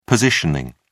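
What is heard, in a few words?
"positioning", British English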